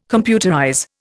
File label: "computerize", Indian